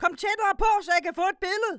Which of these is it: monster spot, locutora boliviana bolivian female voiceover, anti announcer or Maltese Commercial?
monster spot